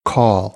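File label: "call", American English; AmericanBritish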